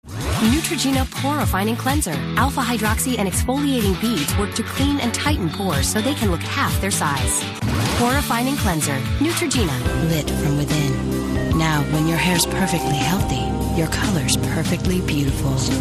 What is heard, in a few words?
commercial, commercial women